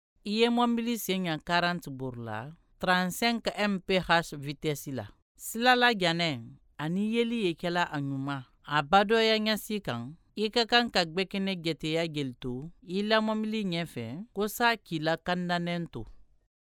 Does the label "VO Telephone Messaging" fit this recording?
No